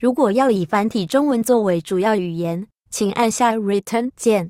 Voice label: VO Instructions zh Hant; Language Chooser